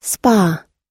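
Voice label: "spa", American English; spa /spɑ́; 鉱泉、温泉、鉱泉の出る保養地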